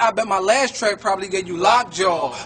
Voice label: I BET MY LAST TRACK GAVED U LOCK JAWWW, Hip-Hop Vocals Samples